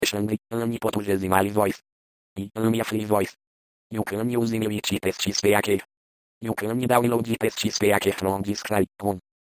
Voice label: portugese male